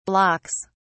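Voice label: "blocks", British English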